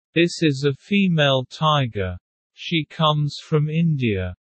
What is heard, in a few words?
low; Animal friends